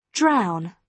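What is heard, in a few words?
"drown", British English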